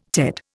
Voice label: "Ted", Indian

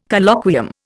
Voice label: "Colloquium", Indian

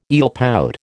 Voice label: "eelpout", American

